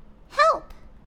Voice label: Help, human girl villager; customnpcs